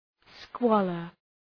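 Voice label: "squalor", English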